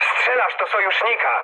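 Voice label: player friendly fire, mood med, Polish speech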